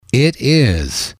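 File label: "it is", English, male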